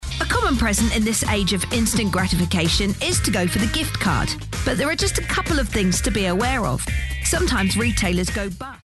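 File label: British English, female, commercial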